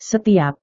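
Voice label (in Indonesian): "setiap", Indonesian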